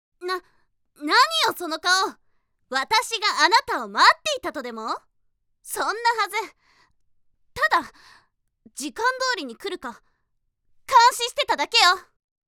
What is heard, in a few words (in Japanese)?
ツンデレ